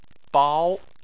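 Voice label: "bao" Chinese, second tone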